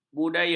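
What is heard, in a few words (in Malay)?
"budaya", Malay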